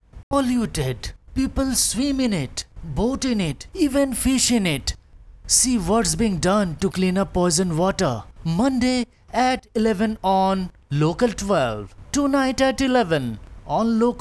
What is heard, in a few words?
Clean water promo